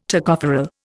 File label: "Tocopherol", Indian